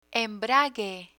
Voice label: "embrague", Spanish